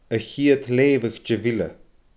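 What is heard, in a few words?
"a chiad leughadh de bhile", Scottish Gaelic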